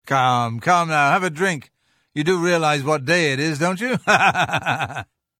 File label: Holiday Greeting Breton Male; Unused